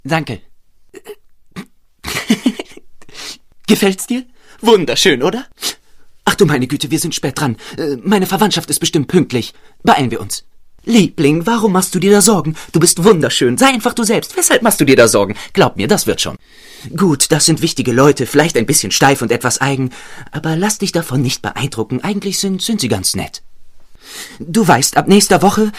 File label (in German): jung schnell